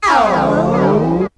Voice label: "oh" alien; ooh ahh